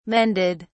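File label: "mended", British English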